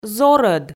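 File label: "зорӧд", Komi, female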